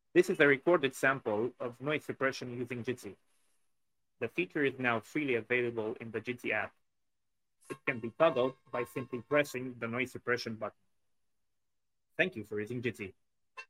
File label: denoised audio; jitsi